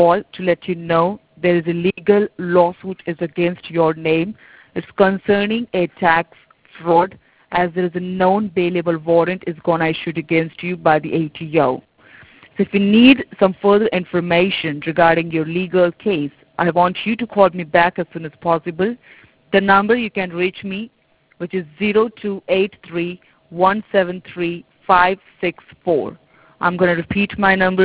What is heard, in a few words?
voicemail